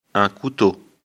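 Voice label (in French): "couteau", French; afrikalan; lecture fr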